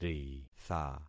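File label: "ritha", concatenated syllables; Affixing experiment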